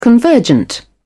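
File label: "convergent", British English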